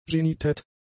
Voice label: "genietet", German; adjectives